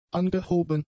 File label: "angehoben", German; adjectives